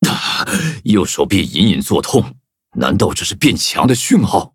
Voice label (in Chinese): levelup, zh-hant; cookie run